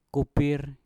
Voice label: "kupir", Mpur; ulat